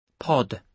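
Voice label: "pod", British English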